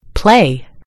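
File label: "play", American English; gcompris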